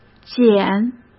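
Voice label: "捡", Mandarin Chinese; hsk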